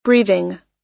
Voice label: "breathing" US